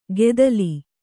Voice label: "ಗೆದಲಿ", kannada

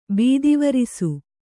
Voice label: "ಬೀದಿವರಿಸು", Kannada

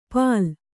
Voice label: "ಪಾಲ್", kannada

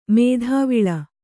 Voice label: "ಮಾದವಾಳ", Kannada

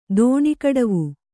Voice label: "ದೋಣಿಕಡವು", Kannada

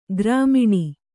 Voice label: "ಗ್ರಾಮಿಣಿ", kannada

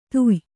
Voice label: "ಧಾಯ್ಯೆ", Kannada

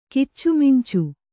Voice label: "ಕಿಚ್ಚುಮಿಂಚು", kannada

